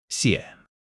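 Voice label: "xie3", Mandarin Chinese; HSK1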